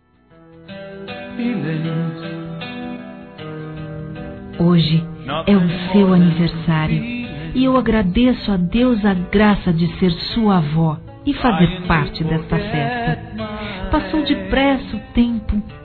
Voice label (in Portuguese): Neto (a) Feminino; Aniversários; Aniversários diversos